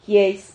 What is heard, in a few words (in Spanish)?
"jies", Silabas